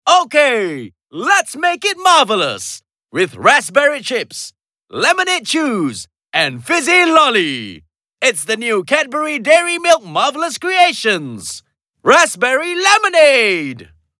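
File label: Male VO English Announcer Energetic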